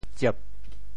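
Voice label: "tsiep4", Teochew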